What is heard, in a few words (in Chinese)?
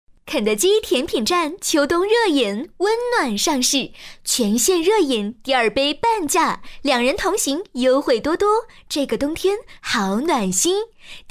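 女 广告配音【年轻甜美】; 甜美亲切; 肯德基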